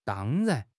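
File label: "dang1 ran2", Chinese; HSK3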